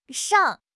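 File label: "shang3", Chinese; HSK1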